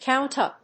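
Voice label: "count up", English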